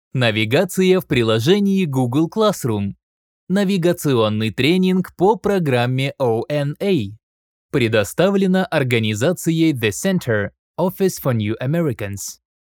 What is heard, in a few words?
Classroom navigation training in Russian